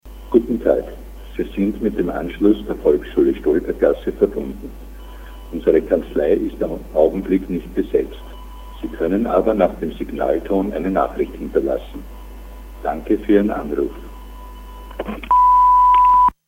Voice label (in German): Anrufbeantworter